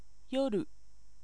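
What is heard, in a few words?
Japanese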